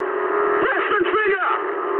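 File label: English attack position mood high; War Thunder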